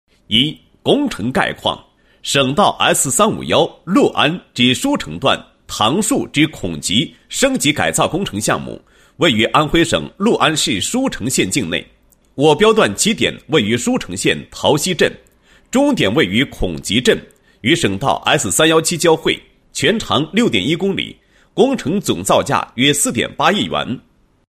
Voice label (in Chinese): 男 工程解说; 舒城S351公路升级文明工地创建解说